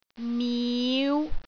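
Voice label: "miu5", Cantonese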